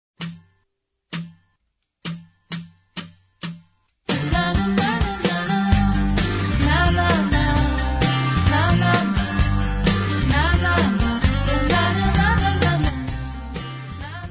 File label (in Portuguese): Aniversário Pessoa Distante F; Aniversários